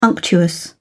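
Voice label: "unctuous", British English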